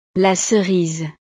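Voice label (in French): "la cerise", French; frutas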